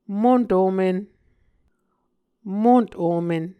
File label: "mont omen", Muscogee